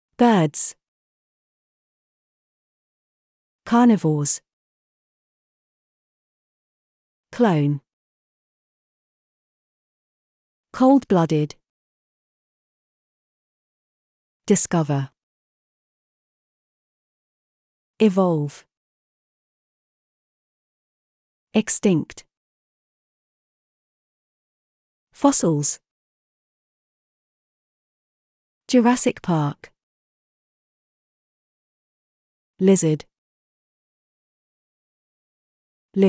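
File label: British; dinosaurs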